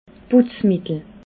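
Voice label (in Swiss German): "putzmittel", Alsatian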